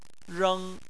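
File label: "reng", Chinese, tone 1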